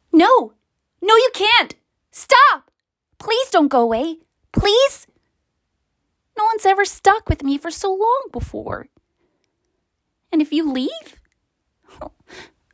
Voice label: female english irish and italian usa accent animation; finding nemo